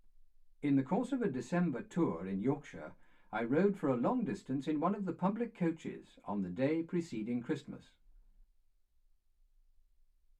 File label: male speech english